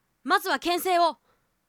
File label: boy pilot attack